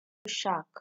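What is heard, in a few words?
"shack", Nigerian Pidgin, female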